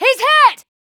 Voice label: american Positive; azurlane-hoi4; azurlane-sr-reforged